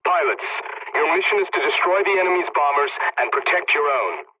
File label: mission start, english; War Thunder